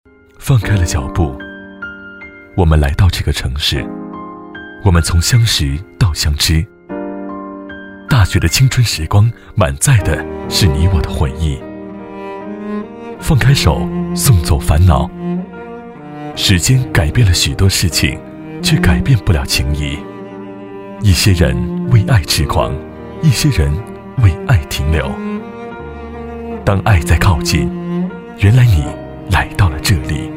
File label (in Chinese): 其他, 微电影, 磁性独白